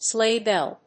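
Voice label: "sleigh bell", English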